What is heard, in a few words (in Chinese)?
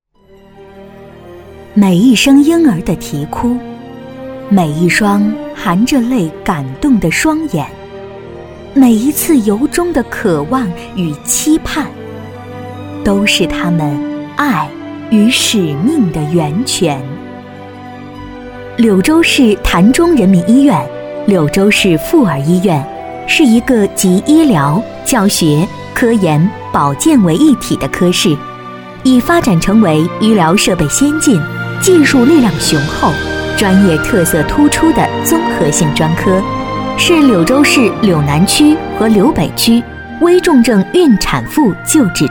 亲切大气 人民医院; 柳州市潭州人民医院